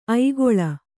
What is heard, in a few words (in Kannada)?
"ಐಗುಳ", kannada